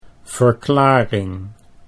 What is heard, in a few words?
"verklaring", Dutch